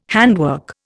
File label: "handwork", Indian